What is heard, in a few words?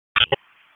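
Wake Forest Police Dispatch, p25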